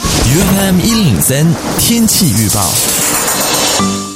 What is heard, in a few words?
Mandarin weather; UFM